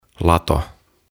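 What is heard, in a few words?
"Lato", Finnish; Barn; OTAVA KONSERNI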